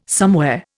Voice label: "somewhere", Indian